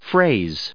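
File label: "phrase", us English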